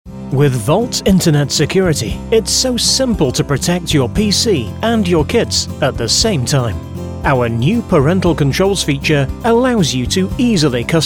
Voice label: English British corporate videos

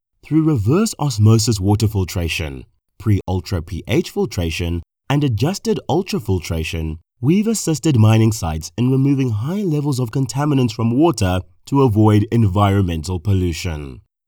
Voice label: English South African corporate videos

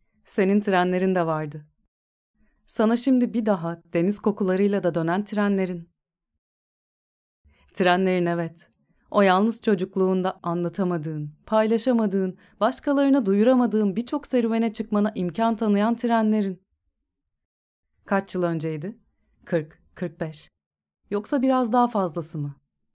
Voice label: turkish narration

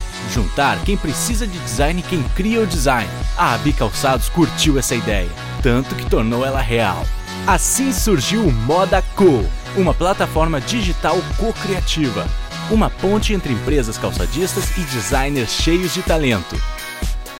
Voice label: portuguese brazilian corporate videos

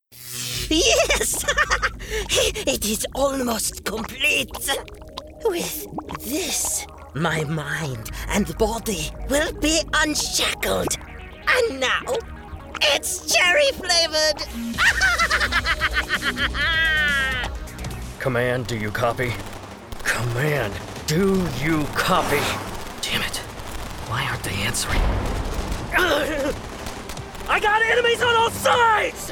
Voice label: english american animation

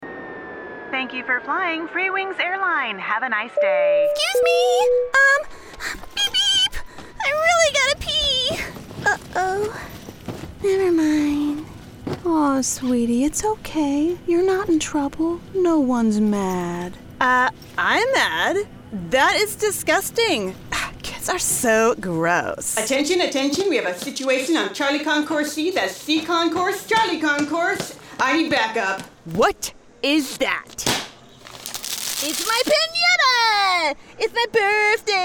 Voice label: english american animation

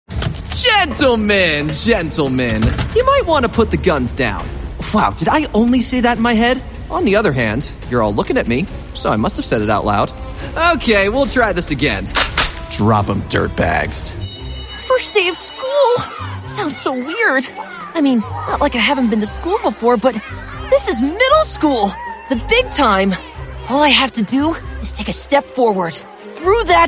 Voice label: english american animation

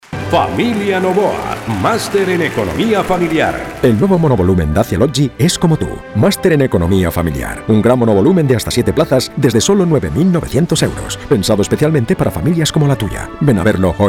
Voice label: spanish promos

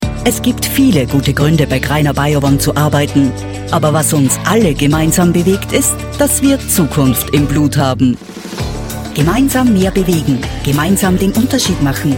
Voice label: german austrian commercial; Deutsch (Österreich); deutsch-österreichische

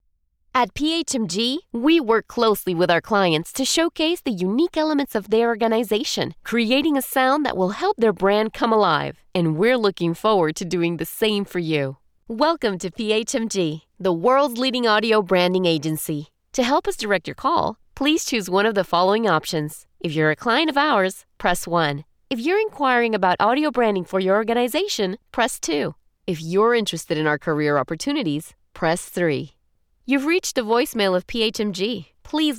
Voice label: English IVR

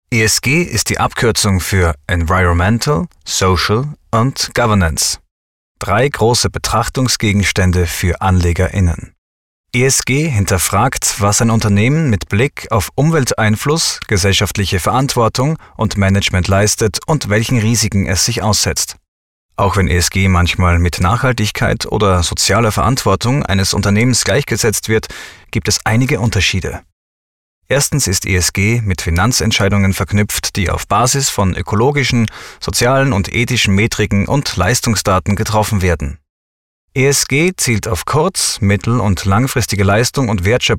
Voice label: german e-learning

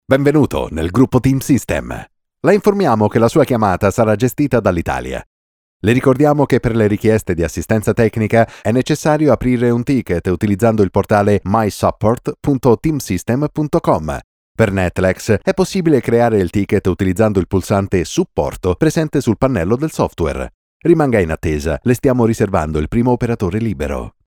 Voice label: italian ivr

